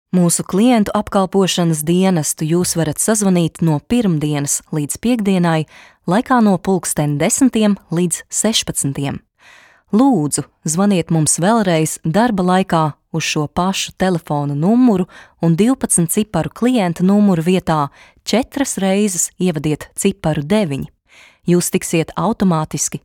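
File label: Latvian, female, interactive voice response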